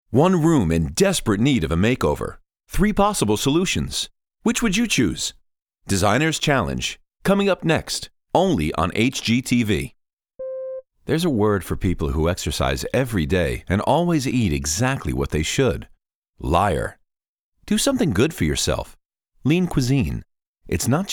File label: Dry VO audition